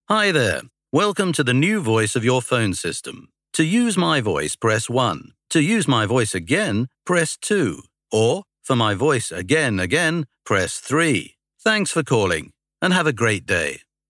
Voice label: UK Male Phone